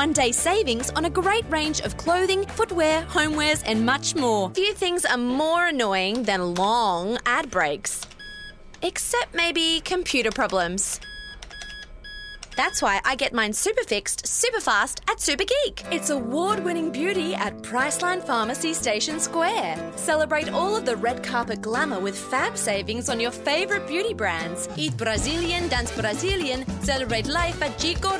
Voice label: Voice Over